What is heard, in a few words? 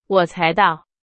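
Chinese, example sentence; hsk2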